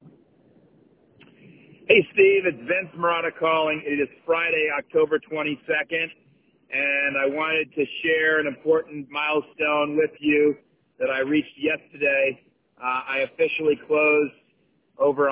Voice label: voicemail